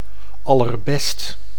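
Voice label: "allerbest", Dutch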